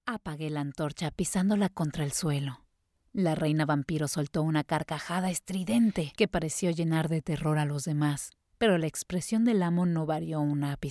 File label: spanish mexican audiobooks